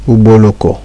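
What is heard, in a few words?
"ugboloko", Nigerian Pidgin, male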